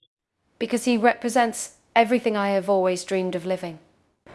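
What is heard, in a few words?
Voice Acting Spanish Female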